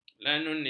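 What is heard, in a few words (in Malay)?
"lanolin", Malay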